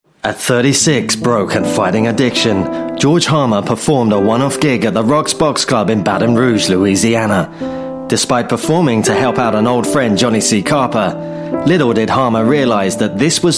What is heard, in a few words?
UK English MUSIC BIOGRAPHY